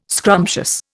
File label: "scrumptious", Indian